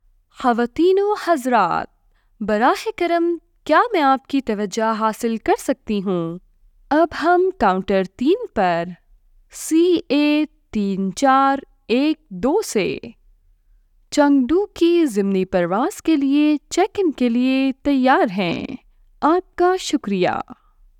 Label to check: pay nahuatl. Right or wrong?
Wrong